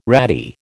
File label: "ratty", American